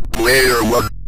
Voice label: player won, Synth Voice; Kenney's Sound Pack; Synth Voice 1